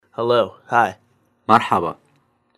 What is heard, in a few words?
iraqi, Hello. Hi mer-haba مرحبا